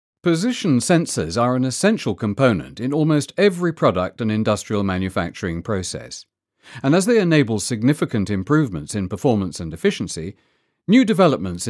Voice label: corporate